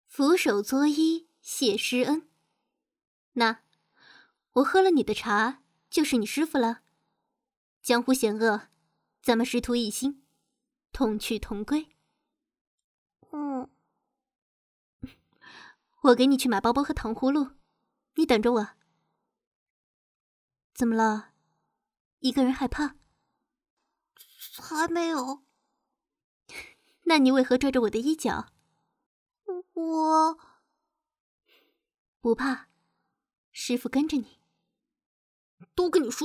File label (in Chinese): 少御少年